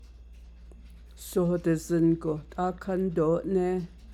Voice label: navajo; imperative; During the prayer don't get up